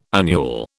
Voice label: "annual", British